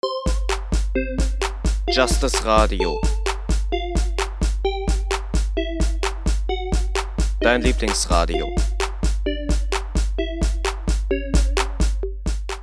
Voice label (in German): Jingle Dein Lieblingsradio